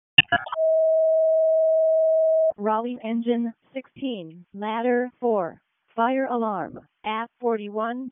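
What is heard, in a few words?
Fire Department Alert, p25; RFD ALERT; wake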